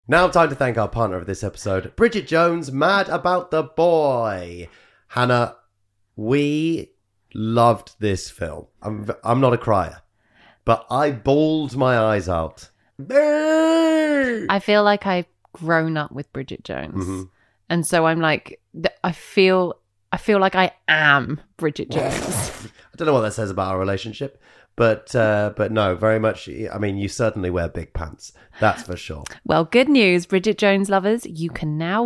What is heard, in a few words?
Voiceover; Joel Dommett